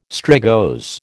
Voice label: "strigose", American